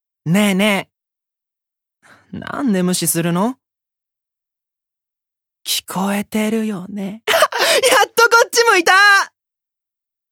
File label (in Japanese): ヤンデレ